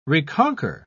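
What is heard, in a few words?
"reconquer", British English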